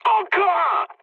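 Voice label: French player killed enemy mood high; War Thunder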